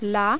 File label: french